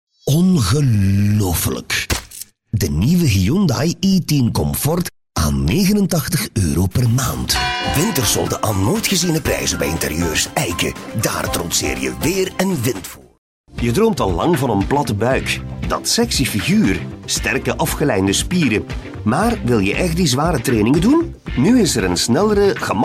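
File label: Flemish, male, voice over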